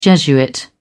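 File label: "jesuit", British English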